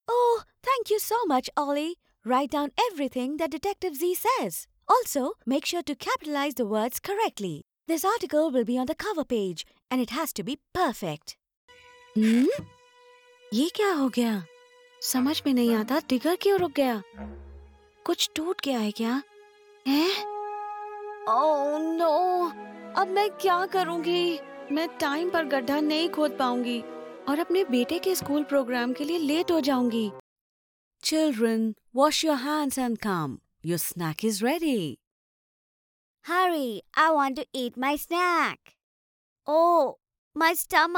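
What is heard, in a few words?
English Hindi Animation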